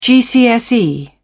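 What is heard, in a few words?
"gcse", British English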